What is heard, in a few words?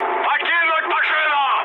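Russian bailout mood med, speech; War Thunder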